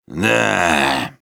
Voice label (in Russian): Soldier yes ru; (тихо, без энтузиазма) «Да...»